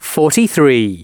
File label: announcements male